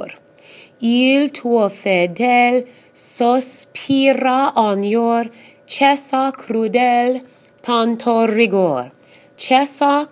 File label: Caro Mio Ben Pronunciation